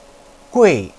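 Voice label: "gui4", Mandarin Chinese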